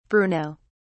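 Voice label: "bruno", English, British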